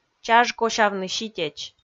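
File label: "чаж косявны ситеч", Komi, female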